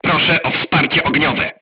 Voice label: voice message art command oneself, crew tanks polish